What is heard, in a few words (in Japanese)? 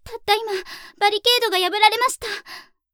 たった今バリケードが破られました！, アナウンサー; ハイグレボイス集